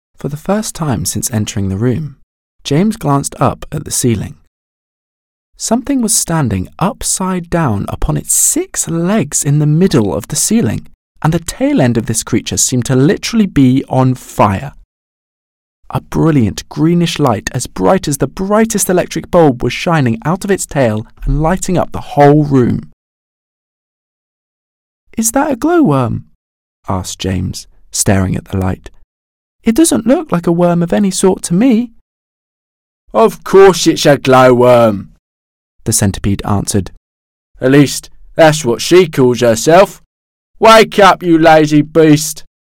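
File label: British English, Narration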